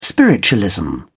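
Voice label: "spiritualism", British English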